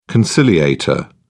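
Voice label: "conciliator", British English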